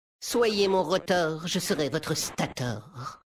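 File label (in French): French Homme Flirt; Mecagnome; Rotor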